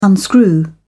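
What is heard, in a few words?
"unscrew", British English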